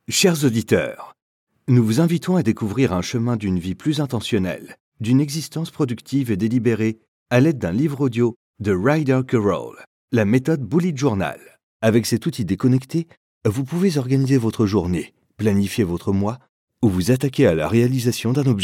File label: French voice over narration